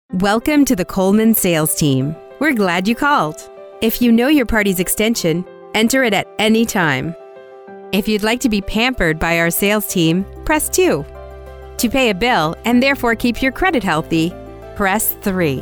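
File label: Telephony VO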